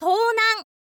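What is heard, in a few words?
"tounan", Japanese, girl; voice; info girl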